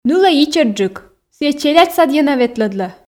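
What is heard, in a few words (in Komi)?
нылӧй ичӧтджык ciйӧ челядь садйӧ на ветлӧдлӧ, Komi, female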